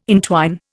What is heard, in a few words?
"intwine", Indian